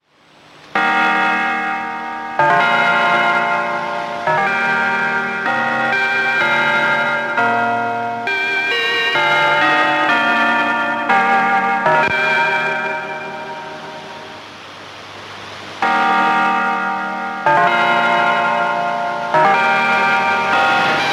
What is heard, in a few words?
Voice of Russia 6 240MHz AM ident, shortwave radio; icom-r20